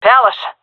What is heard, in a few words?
loc palace, seal fem; vo; agents; Source Model Packs; Counter Strike Model Pack; CS2